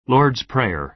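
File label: "lord s prayer", American English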